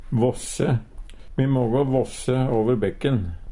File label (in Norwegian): "våsse", Numedalsmål; Me mågå våsse over bekken.; Uttrykk